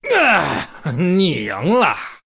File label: Male Human Concede, zh